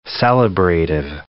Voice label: "celebrative", English

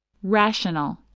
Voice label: "rational", english

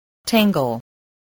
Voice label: "tangle", English

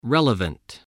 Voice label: "relevant", spelling voice

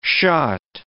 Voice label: "shot", English

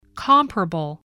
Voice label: "comparable", english

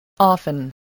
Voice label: "often", voice, spelling

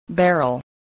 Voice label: "barrel", English

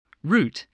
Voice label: "route", English spelling

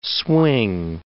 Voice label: "swing", English word

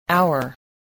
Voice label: "hour", voice spelling

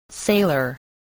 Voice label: "sailor", English spelling

